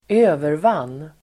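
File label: "övervann", Swedish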